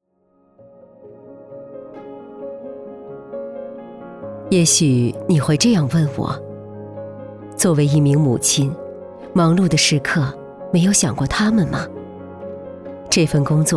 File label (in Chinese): 女 角色 温暖稳重 40岁税务员讲述